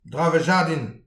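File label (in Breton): "draf ar jardin", Breton; petit precis de prononciation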